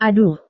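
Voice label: "aduh", Indonesian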